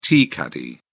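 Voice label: "tea caddy", British English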